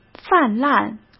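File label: "泛滥", Mandarin Chinese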